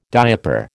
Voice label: "diaper", American